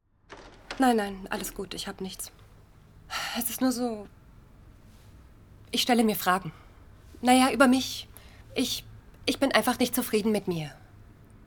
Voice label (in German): Komoedie Sync; franz.